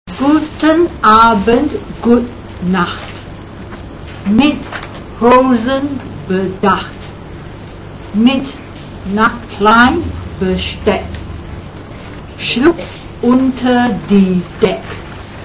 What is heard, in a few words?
wiegenlied german read